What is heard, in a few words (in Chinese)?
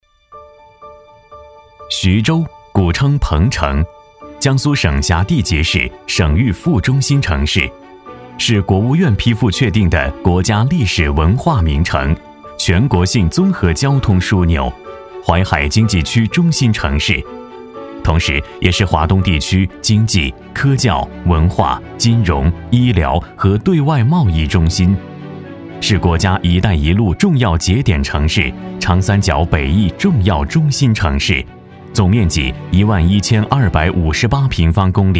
男, 城市介绍类专题片; 徐州片段